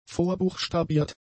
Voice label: "vorbuchstabiert", German; adjectives

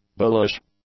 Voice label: "bullish", English; adjectives

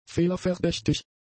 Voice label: "fehlerverdächtig", german; adjectives

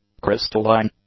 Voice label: "crystalline", English; adjectives

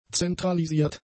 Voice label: "zentralisiert", German; adjectives

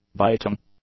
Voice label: "biting", English; adjectives

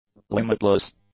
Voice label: "limitless", english; adjectives

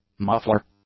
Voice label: "muffler", English; nouns

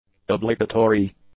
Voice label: "obligatory", english; adjectives

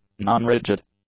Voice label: "non-rigid", english; adjectives

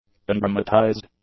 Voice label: "undramatised", English; adjectives

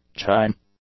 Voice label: "chime", English; nouns

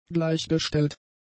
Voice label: "gleichgestellt", German; adjectives

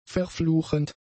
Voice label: "verfluchend", German; adjectives

